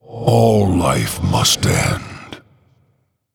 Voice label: Male Human Concede

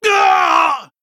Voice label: Male Blood Elf Death

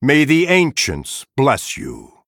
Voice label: Male Night Elf Thanks

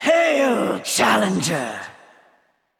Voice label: Female Val'kyr Greetings

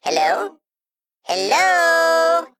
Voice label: Male Mech Thinking

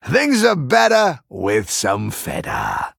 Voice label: Male Human

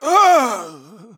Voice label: Male Human Death

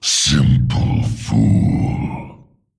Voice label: Male Demon Attack

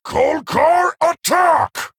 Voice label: Male Centaur, Attack

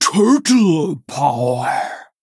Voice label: Female Tortollan Play

